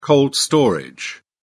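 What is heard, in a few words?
"cold storage", British English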